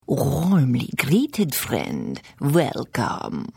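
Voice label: Hello, Khajiit female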